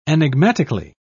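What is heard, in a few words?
"enigmatically", British English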